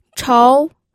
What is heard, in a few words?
"chou2", pinyin